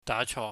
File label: "dacho", cantonese